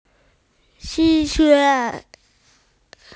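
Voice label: "ci2yu3", Mandarin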